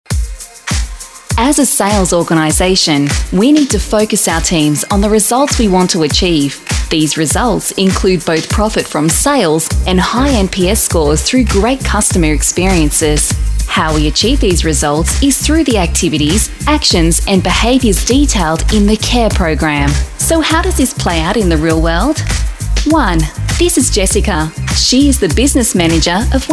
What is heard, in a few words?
female talent corporate